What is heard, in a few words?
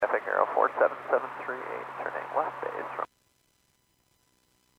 Airports 122.7, Radio; KSTE